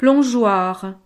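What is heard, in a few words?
"plongeoir", French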